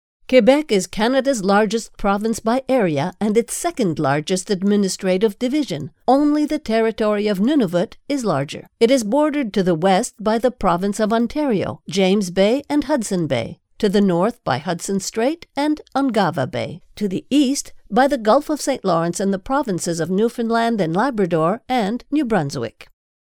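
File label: French Canada, female, voice over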